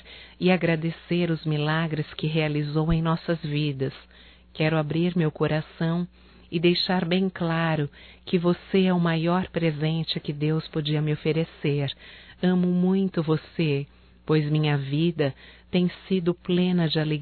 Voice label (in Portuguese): Romântico Feminino, Telemensagens, Natal; Telemensagens 2017; Natal & Ano Novo